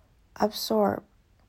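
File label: "absorb", TWN, female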